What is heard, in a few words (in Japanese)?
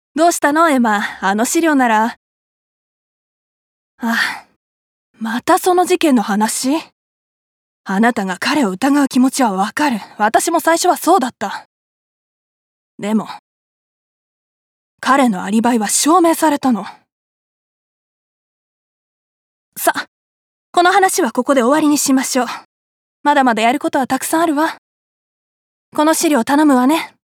刑事30代